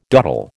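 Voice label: "dottle", American English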